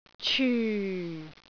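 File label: "cyu4", Cantonese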